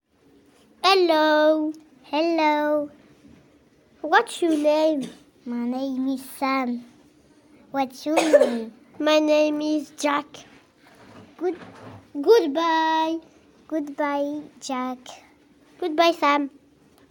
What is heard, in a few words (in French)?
dialogue marionnettes